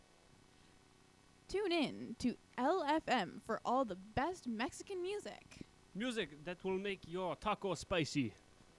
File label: voice track; radiostation